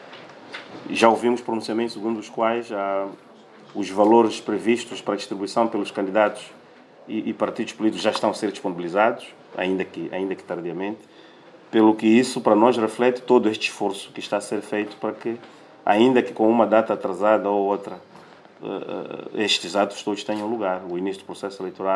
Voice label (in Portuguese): porta voz do Governo